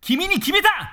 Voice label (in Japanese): 君に決めた！（男性）